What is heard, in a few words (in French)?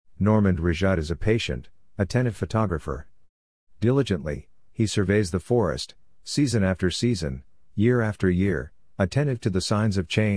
anglais